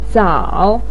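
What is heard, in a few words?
"zao3" Chinese syllable, pinyin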